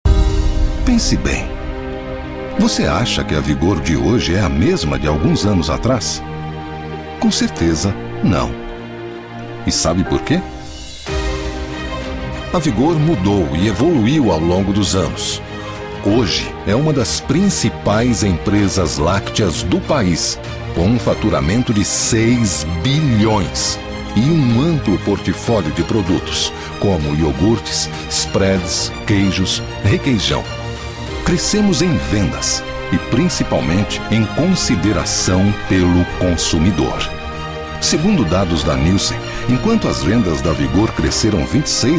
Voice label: Repertório Institucional

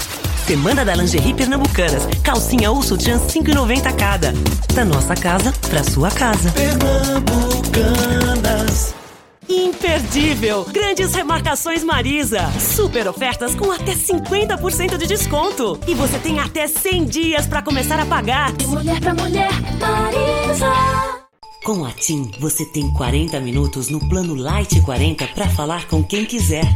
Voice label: repertorio promocoes

